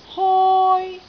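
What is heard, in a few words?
"hoi1", Cantonese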